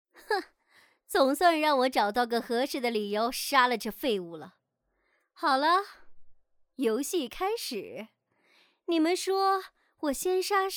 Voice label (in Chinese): 动画 角色 妖女